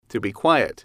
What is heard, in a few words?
"to be quiet", English